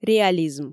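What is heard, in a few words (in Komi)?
"реализм", Komi, female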